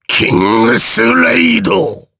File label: Vox, jp; voices; heroes; Phillop; Kingsraid